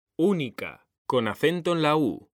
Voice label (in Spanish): "ÚNICA", H; mekanta